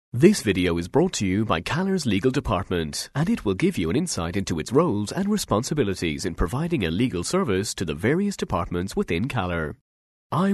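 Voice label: English Republic of Ireland male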